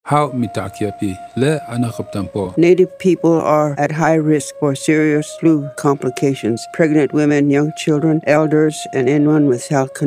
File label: lakota female; flu; psa; radio; native-and-alaskan-psas; serious protection